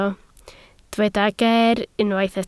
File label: "ger", Welsh